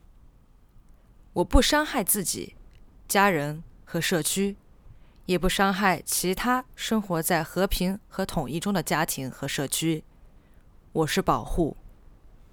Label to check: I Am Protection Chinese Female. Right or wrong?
Right